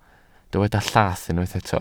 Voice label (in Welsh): "llath", Welsh